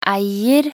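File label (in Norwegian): "eier", Norwegian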